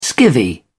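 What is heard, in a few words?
"skivvy", British English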